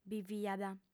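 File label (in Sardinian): "biviat", female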